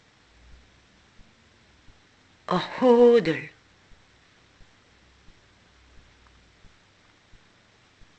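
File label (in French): poche une ur c'hodell, apprenons le breton ensemble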